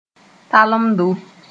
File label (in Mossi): "talemdo", moore